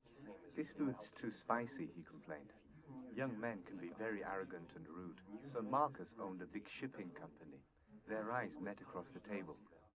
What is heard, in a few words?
male liverec; SiSEC11; underdetermined